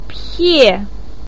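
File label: "pie" fourth tone, Mandarin Chinese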